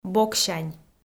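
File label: "боксянь", Komi, female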